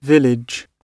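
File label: "village", British English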